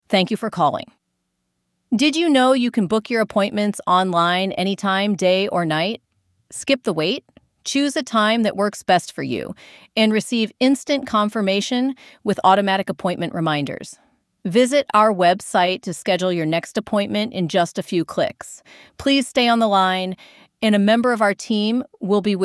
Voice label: scheduling ivr